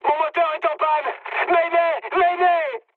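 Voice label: damaged engine, mood high, French; War Thunder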